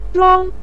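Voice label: "zhuang" neutral tone, Mandarin Chinese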